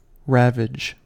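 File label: "ravage", US English; pronunciations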